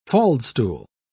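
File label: "faldstool", British English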